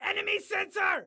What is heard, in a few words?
Warn Context A Sensor, Excited Guy